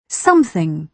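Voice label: "something", British English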